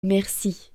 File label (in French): "Merci", French